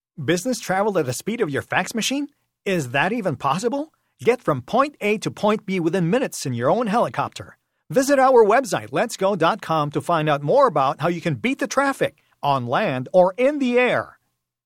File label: English (Selling, Enthusiastic, Confident)